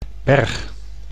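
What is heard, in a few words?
"berg", Dutch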